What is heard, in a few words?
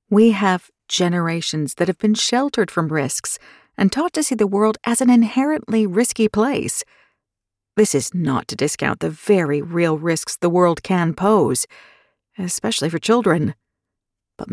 Audiobook Non Fiction General American